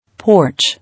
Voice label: "Porch", English